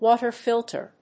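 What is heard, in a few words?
"Water filter", English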